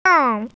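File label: "beng4", Mandarin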